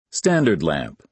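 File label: "standard lamp", American English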